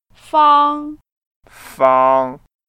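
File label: "fang1", Mandarin Chinese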